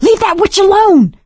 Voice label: witch getting angry, survivor voice; Left 4 Dead 2; producer